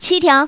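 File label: "7 tiao", Chinese, female; 女; 欢乐麻将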